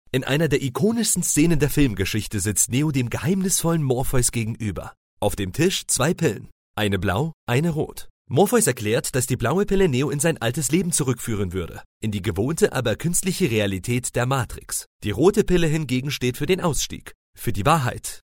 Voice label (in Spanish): locutor aleman german voiceover; Escena Digital